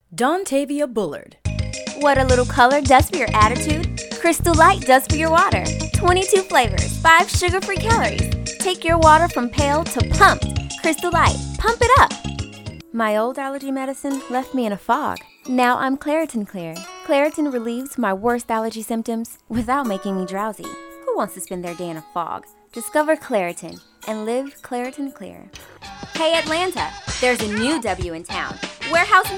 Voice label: Voice Over